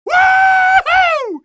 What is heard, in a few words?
cheer, male; coast; odessa